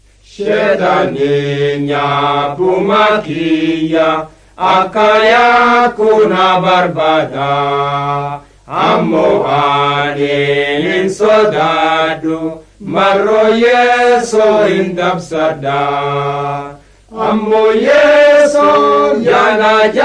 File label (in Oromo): borana gabbra; words of life